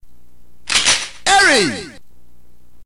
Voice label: narrator